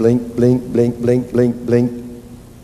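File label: blink blink blink blink blink, gibberish; Voice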